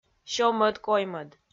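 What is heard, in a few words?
"сё-мӧд-коймӧд", Komi, female; kpv audio dict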